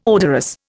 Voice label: "odorous", Indian